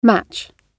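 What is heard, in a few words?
"match", British English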